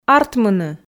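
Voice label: "артмыны", Komi, female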